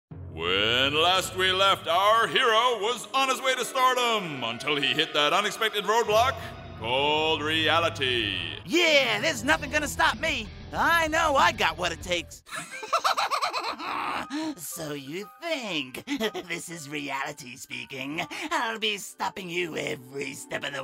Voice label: animation